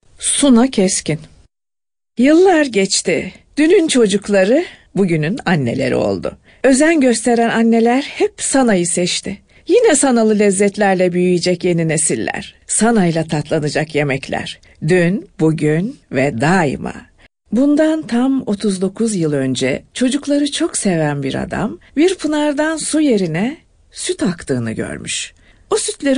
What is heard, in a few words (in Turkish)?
turkce kadin